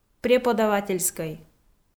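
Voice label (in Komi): "преподавательскӧй" Komi, female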